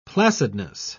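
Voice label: "placidness", British English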